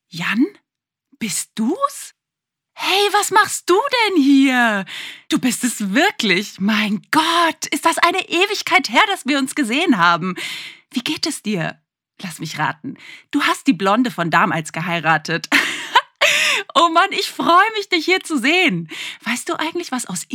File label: Voice Acting